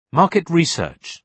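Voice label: "market research", British English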